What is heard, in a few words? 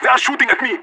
radio taking fire; Counter Strike Model Pack; CS:GO; leet